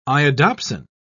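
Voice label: "iodopsin", English, British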